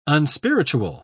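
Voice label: "unspiritual", British English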